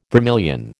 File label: "vermilion", American